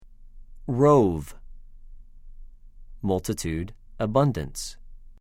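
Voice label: "רֹב", Hebrew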